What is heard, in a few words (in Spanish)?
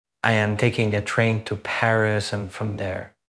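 Voice Acting, Spanish, male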